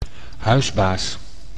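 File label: "huisbaas", Dutch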